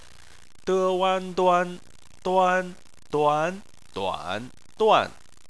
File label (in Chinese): "duan", Chinese